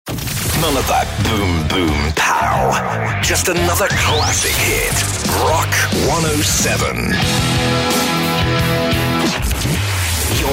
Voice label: rock imaging